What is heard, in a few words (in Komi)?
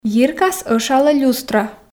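йиркас ӧшалӧ люстра, Komi, female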